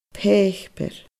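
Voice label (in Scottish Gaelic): "paipear", Scottish Gaelic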